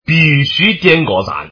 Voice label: man; guizhou

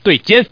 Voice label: "dui", man; guizhou

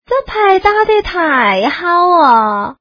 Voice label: woman; guizhou

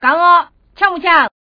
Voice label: gang, woman; guizhou

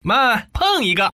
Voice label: "peng", man; guizhou

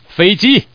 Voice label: feiji, man; guizhou

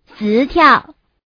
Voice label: woman; guizhou

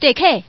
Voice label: "dui", woman; guizhou

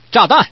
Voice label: "zhadan", man; guizhou

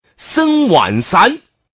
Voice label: man; guizhou

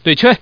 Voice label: "dui", man; guizhou

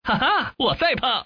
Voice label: peng, man; guizhou

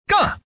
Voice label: "gang", man; guizhou

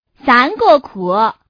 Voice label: woman; guizhou

